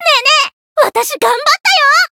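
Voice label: win, Japanese; myrus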